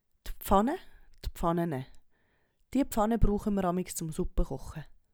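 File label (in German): d Pfanne, Swiss German; Hauptwortschatz; Wortschatz Kapitel 8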